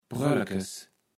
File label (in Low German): "Pröllekes", Platt